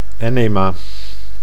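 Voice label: "enema", Dutch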